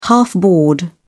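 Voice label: "half board", British English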